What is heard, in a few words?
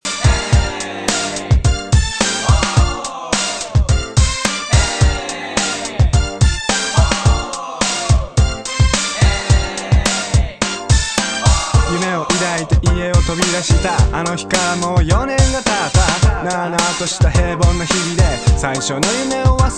japanese